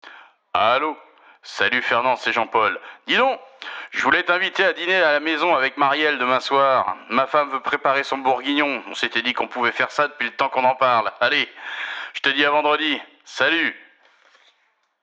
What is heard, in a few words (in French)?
VOIX HOMME